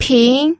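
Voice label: "ping", Mandarin Chinese, second tone; pinyin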